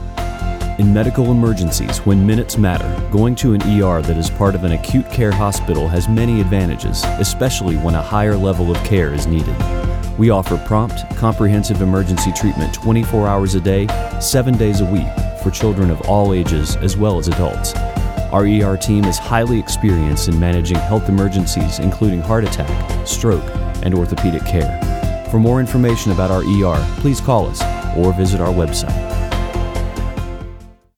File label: Serious Urgent Voiceover Spot